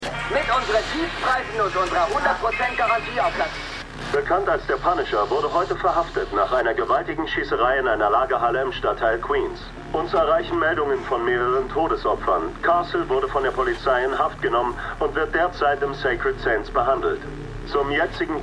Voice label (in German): Radio Werbesprecher Nachrichtensprecher; Marvel's The Punisher